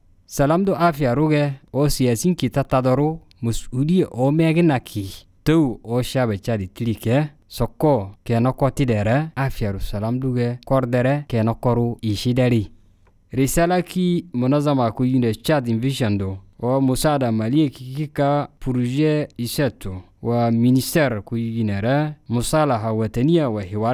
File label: Zakhawa Message